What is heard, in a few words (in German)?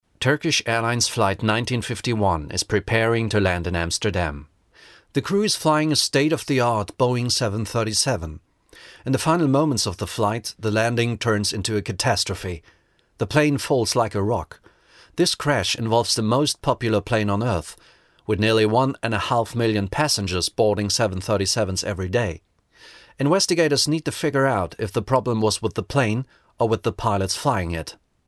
englische sprachprobe; schiphol disaster